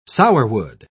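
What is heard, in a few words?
"sourwood", British English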